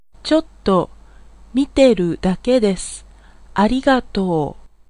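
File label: Japanese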